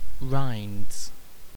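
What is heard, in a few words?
"rinds", British English; pronunciations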